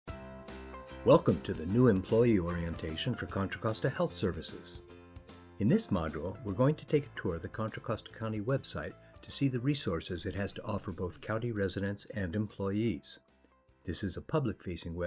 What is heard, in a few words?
eLearning